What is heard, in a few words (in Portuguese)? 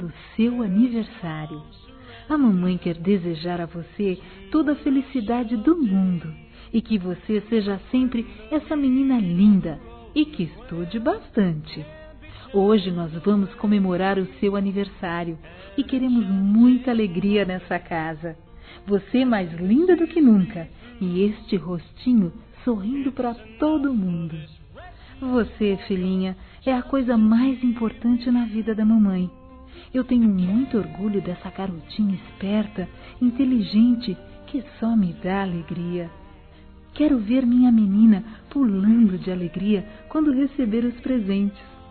FILHA-F, Telemensagens; Aniversário Infantil